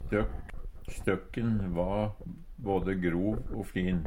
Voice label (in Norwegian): støkk, Numedalsmål